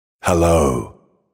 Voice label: creepy hello